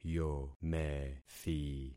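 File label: "yomethi", concatenated syllables; Affixing experiment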